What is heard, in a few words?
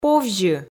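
"повзьы" Komi, female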